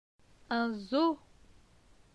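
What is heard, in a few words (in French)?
"Un zoo", French; phonetique; les consonnes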